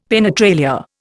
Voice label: "penetralia", Indian; Dictionary